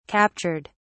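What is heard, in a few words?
"captured", English, British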